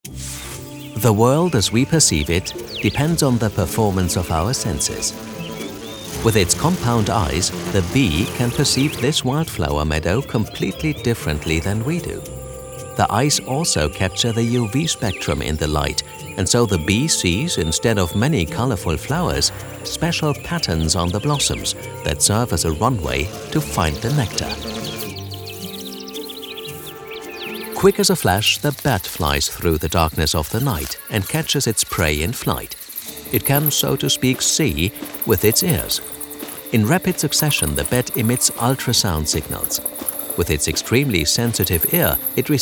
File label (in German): sprecher englisch erklaerfilm